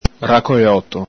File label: "racoloto", Venetian